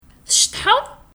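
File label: Kabyle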